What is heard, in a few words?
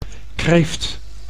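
"kreeft", Dutch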